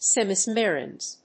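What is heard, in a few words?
"semimembranous", English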